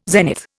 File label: "zenith", Indian